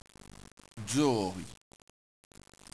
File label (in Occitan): "jori", Occitan